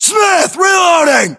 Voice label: inform reloading, US